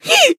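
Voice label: damaged, Japanese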